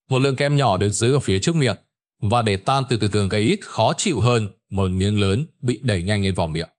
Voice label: narration